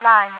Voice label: line, radio voice; hl2; npc; overwatch

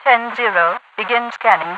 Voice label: begin scanning 10-0, radio voice; hl2; npc; overwatch

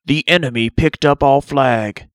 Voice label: enemy pickup, announcer; blue; construct

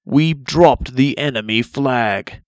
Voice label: blue dropped, announcer; construct

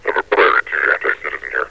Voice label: priority 2 anticitizen here, metropolice; Sourceforts v1.9.2; hl2; npc

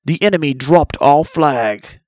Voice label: blue enemy dropped, announcer; construct

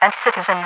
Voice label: anticitizen, radio voice; hl2; npc; overwatch

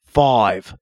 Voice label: announcer, blue 5; construct

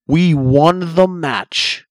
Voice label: blue won, announcer; construct

